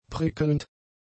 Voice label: "prickelnd", German; adjectives